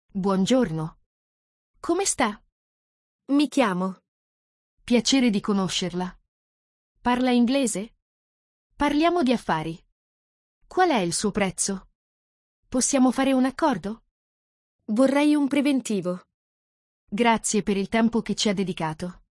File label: Italian for business